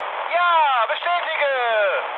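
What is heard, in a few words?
German player killed enemy mood high, speech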